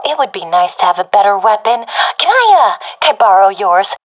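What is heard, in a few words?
request weapon, professional female; vo; Counter Strike Model Pack; CS:GO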